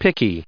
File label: "picky", English